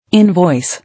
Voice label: "Invoice", English